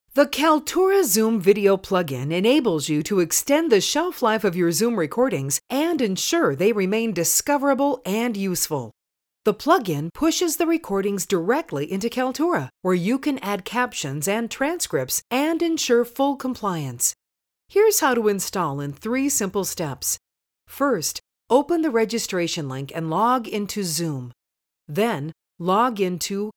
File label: Educational; Kaltura